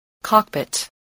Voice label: "cockpit", voice

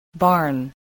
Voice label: "barn", English

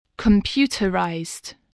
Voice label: "computerised", english

